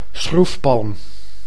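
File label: "schroefpalm", Dutch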